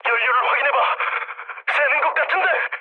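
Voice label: Korean tank damaged mood med, speech; War Thunder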